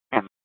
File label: Scottsdale PD Sth Dispatch, scanner